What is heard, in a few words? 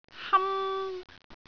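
"ham1", Cantonese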